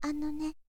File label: syozyo anone